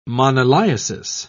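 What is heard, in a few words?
"moniliasis", English, British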